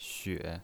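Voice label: "xue", pinyin voice, third tone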